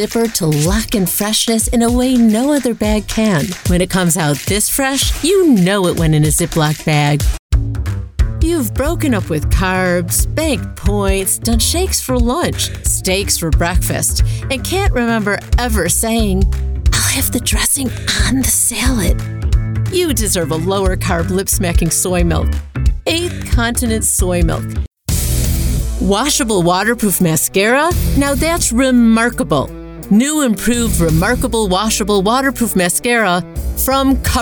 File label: Commercial Demo